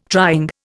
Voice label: "trying", Indian

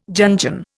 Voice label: "Jungian", Indian

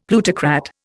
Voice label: "plutocrat", Indian; Dictionary

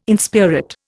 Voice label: "inspirit", Indian

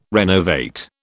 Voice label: "renovate", British

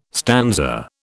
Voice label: "stanza", British

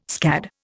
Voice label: "scad", Indian

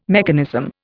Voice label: "mechanism", Indian

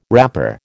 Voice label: "wrapper", American

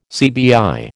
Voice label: "CBI", American

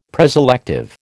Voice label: "preselective", American

